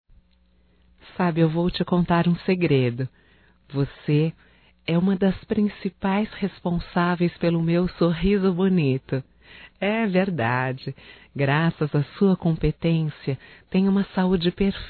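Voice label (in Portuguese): HOMENAGEM A DENTISTA (Feminino), Telemensagens; Homenagens; Telemensagens 2017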